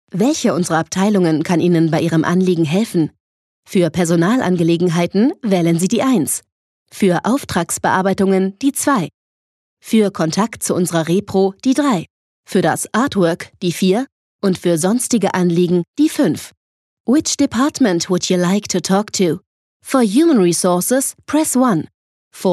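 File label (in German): IVR System deutsch englisch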